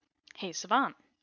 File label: hey savant, wake word, en